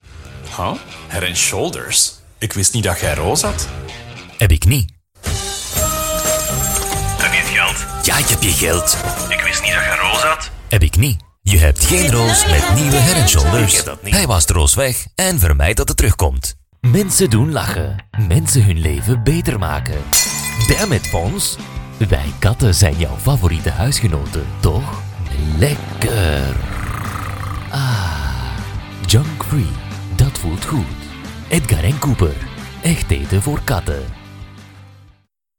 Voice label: tv commercial flemish

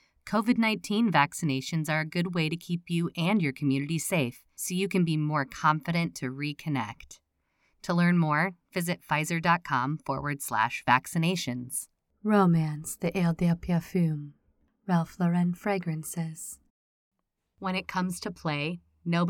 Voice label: Commercial Raw

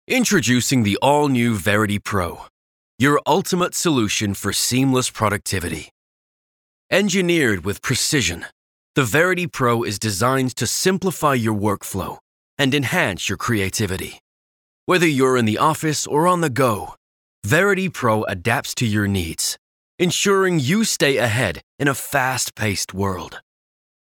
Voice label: Product Launch General American

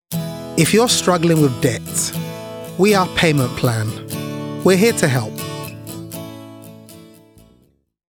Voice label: ad

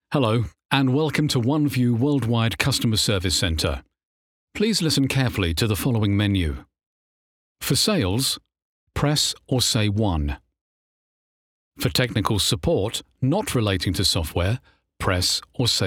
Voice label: Phone IVR

